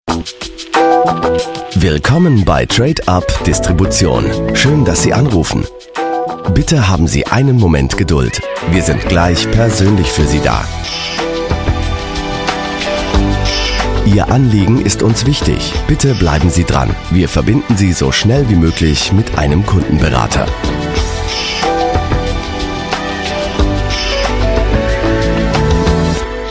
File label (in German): Telefonansage